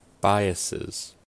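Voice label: "biases", American English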